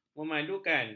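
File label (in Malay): "memadukan", Malay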